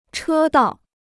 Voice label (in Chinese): "车道", Chinese